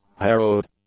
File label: "harrowed", English; adjectives